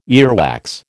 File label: "earwax", American